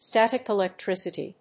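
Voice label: "Static Electricity", English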